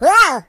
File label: Jump, voices Russian